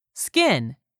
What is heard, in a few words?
"SKIN", english; 3000 words